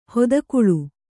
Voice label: "ಹೊದಕುಳು", kannada